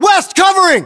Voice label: US response covering west, battlechatter, english